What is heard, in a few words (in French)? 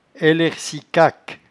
"elercicac", patois jura